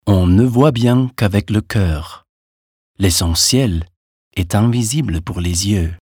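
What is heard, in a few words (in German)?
French Schauspiel Freundlich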